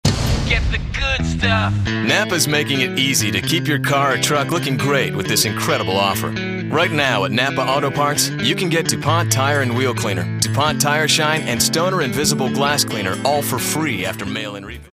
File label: Authoritative